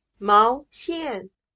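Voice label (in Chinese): "毛线", Chinese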